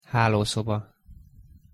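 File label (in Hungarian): "hálószoba", Hungarian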